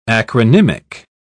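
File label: "acronymic", British English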